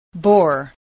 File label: "bore", spelling